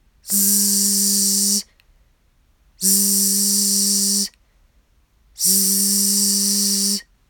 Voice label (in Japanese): 子音Z 長い